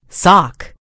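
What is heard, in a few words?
"sock", American English; gcompris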